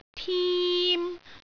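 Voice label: "tim1", Cantonese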